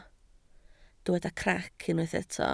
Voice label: "crac", Welsh